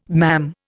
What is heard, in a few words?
"mam", Indian